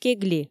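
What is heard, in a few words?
"кегли", Komi, female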